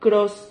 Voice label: "cros", Silabas

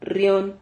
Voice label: "rrion", silabas

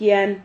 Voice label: "jian", Silabas

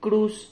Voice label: "crus", Silabas

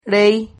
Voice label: "rey", Silabas

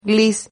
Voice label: "glis", Silabas

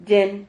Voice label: "yen", Silabas

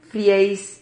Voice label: "frieis", Silabas

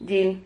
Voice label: "yil", Silabas

